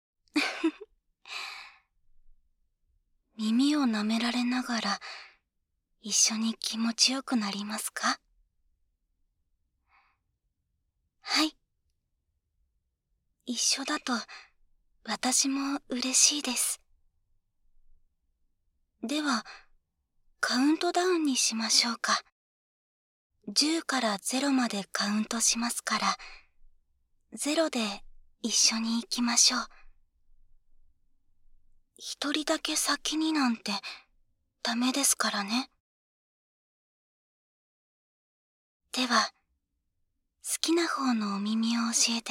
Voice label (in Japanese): 右 声のみ, ASMR